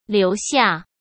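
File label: "留下", Mandarin Chinese; chinese flashcards; hsk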